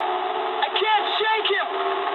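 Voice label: aircraft damaged mood low, speech English; War Thunder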